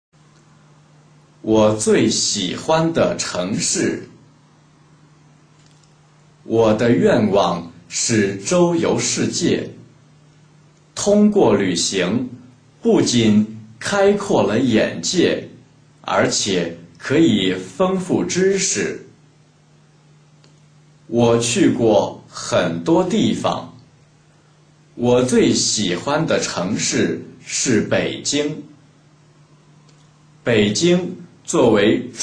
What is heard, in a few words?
chinese reading